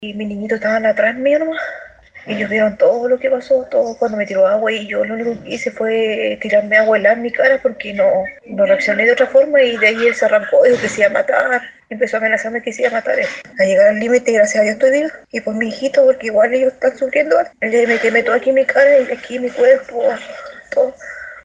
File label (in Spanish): cuña mujer